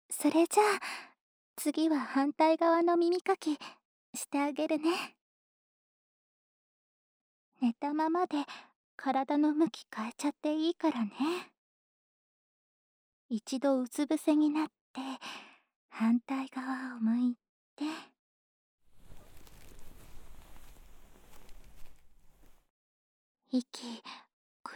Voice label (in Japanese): 耳かき、してあげるね♪・右（耳かき）, ASMR